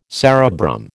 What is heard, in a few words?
"cerebrum", American